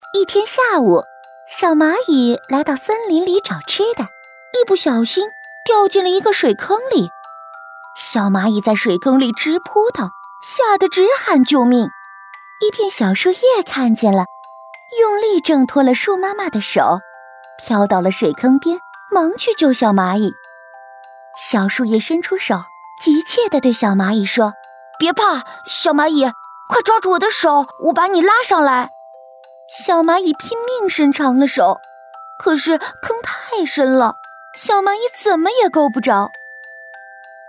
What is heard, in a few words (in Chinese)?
女 课件 小蚂蚁有声读物